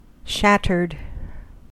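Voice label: "shattered", US English; pronunciations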